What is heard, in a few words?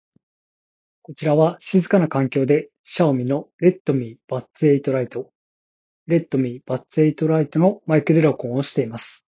Xiaomi REDMI Buds 8 lite mic; ワイヤレスイヤホン